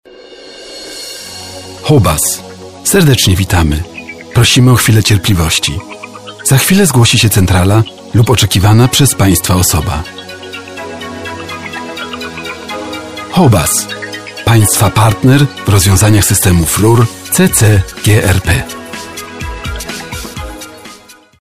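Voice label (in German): Polen, männlich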